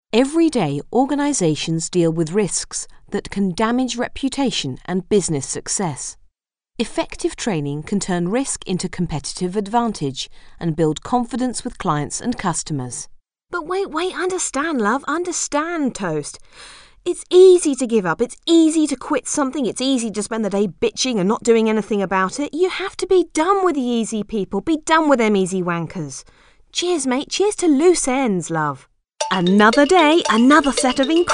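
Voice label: English female; lektoring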